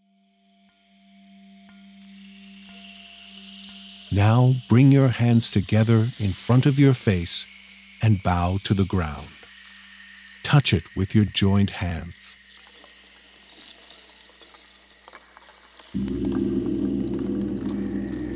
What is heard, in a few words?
English only